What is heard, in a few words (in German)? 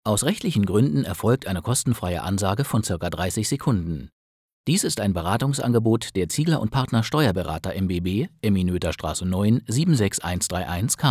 ansage telefonhotline